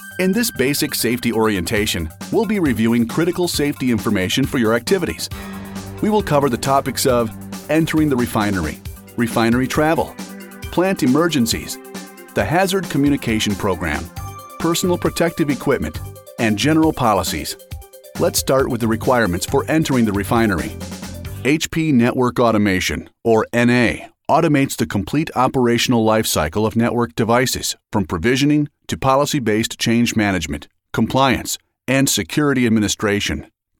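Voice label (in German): English US Variabel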